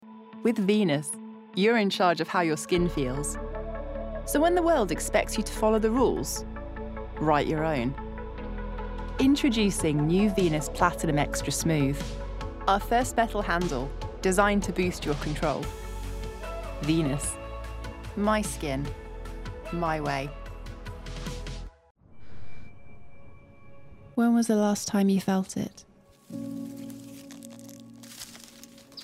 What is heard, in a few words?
english female voices british accent